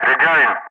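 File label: "rejoin", English; Ka-50; Wingman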